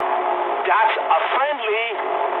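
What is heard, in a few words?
English player friendly fire mood medium; War Thunder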